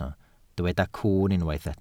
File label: "cwwn", Welsh